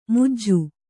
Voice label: "ಮುಜ್ಜು", Kannada